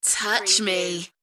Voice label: UK female Touch me; beanstalk audio